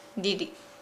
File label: Nukuoro; mad at